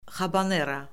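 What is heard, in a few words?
"xabanera", Azerbaijani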